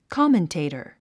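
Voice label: "commentator", English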